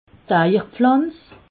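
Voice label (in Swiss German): "eierpflanz", Haut Rhin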